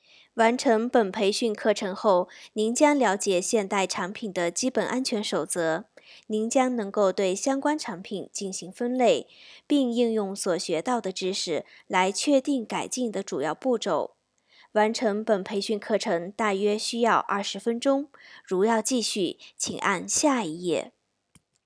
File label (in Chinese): 企业培训样音, 青年, 女